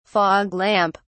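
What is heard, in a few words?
"fog lamp", British English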